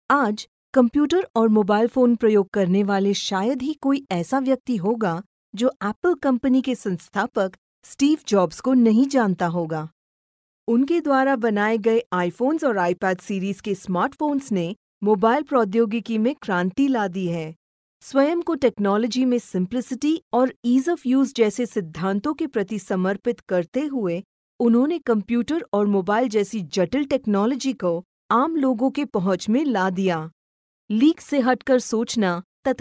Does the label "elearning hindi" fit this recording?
Yes